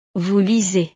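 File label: "vous lisez", French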